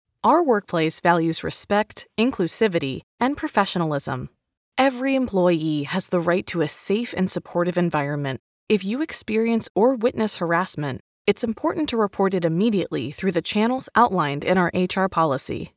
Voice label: HR Training, elearning corporate training